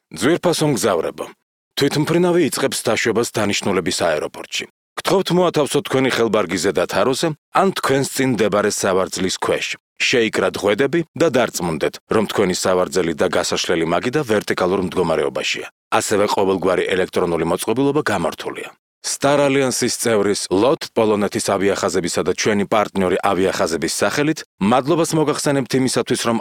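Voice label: georgian male narration; voice demos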